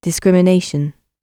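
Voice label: "discrimination" British English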